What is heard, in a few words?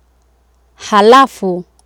"halafu", Swahili